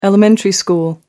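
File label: "elementary school", British English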